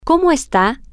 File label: how are you, spanish, F